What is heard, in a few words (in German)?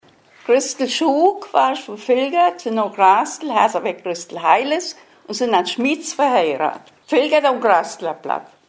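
vorstellung, O-Ton Hunsrück; Völkenroth; stellt sich vor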